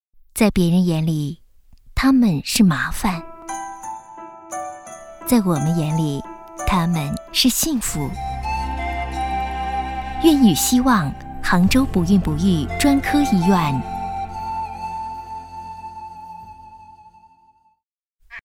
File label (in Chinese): 广告 医院医药 亲切, 女国; 杭州不孕不育专科医院